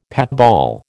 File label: "patball", American